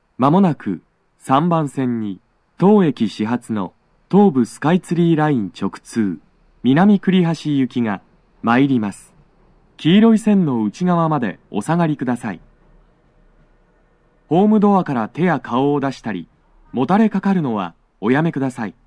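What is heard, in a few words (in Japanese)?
接近放送, 男声; 放送は「南栗橋行き (当駅始発)」です。; 中目黒駅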